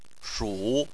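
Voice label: "shu" tone 3, Chinese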